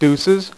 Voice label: "deuces", dealer's speech; PowerPOKER Demo